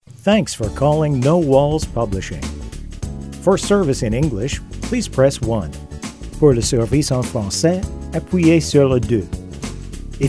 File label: On Hold With Music